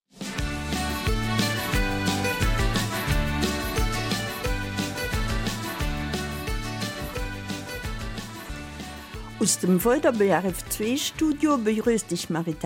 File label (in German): Eifeler Mundart; Der Aufstieg des FC Olympia Recht in die 1 Provinzklasse